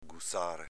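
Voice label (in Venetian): "guzare", Veneto dialect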